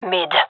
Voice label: loc mid, fem; vo